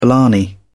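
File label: "blarney", British English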